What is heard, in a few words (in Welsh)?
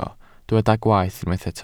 "gwaeth", Welsh